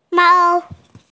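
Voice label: "mao1", Mandarin Chinese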